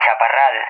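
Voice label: "Chaparral", English, Spain; AirDefence; DCS; Allied Flight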